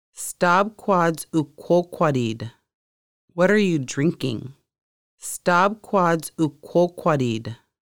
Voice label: what are you drinking, conversational Lushootseed